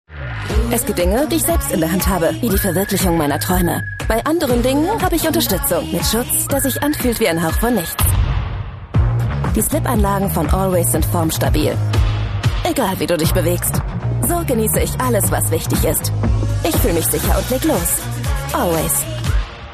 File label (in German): TVC locker unbeschwert; Always Daily Protect 2023